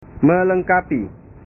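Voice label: "melengkapi", Indonesian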